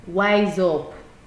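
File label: "wise up", Nigerian Pidgin, female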